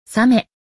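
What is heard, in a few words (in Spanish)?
"tiburon", español; Relaciona Palabras en Ingles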